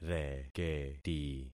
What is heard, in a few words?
"reketi", concat syllables; Affixing experiment